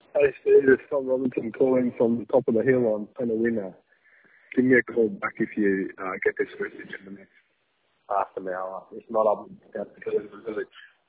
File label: voicemail